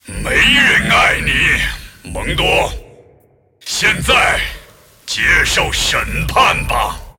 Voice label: move zh